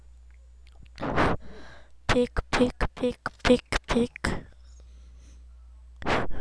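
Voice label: "pick", spoken word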